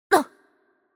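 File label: Hurt Light, Chinese; bloom minigame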